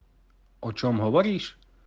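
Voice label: "o čom hovoríš", Slovak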